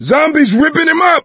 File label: hunter pounced, voice; Left 4 Dead 2; coach